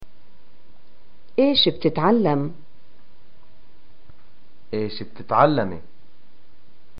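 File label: Palestinian get acquainted what do you study, arabic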